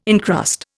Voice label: "incrust", Indian

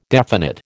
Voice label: "definite", American

